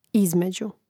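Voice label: "između", Croatian; mreznik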